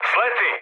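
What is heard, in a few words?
Serbian bailout mood high, speech; War Thunder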